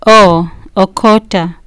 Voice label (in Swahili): "okota", Swahili